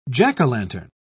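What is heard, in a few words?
"jack-o'-lantern", British English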